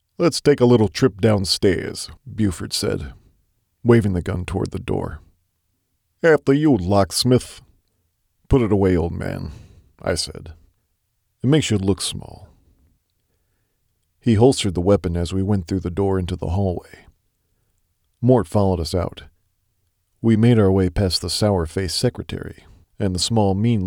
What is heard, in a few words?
Narration